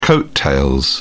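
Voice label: "coat tails", British English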